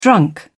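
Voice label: "drunk", British English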